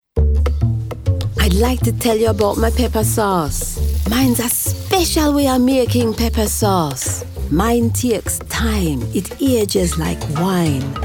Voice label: TV and Radio Commercial; Fedex